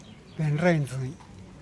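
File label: "ben rien zhin", Teotitlán del Valle Zapotec; worker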